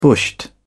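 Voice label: "bushed", British English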